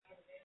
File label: call recording